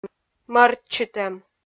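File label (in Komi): "мӧрччытӧм", Komi, female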